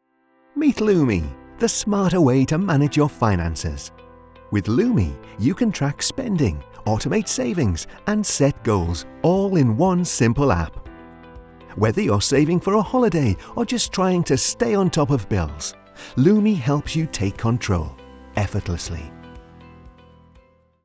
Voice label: Commercial, British English Voice Over, UK accent; Native British English, experience in different genres of voice-overwork